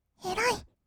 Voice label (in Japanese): 「えらいっ」, kawaii voice; 1200 kawaii voice!!; 色々なワンショット